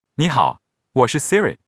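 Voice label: Chinese, China; iOS 26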